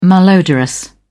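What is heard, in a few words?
"malodorous", English, uk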